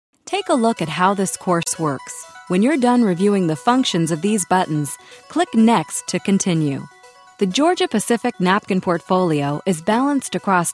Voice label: American Female